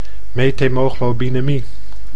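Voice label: "methemoglobinemie", Dutch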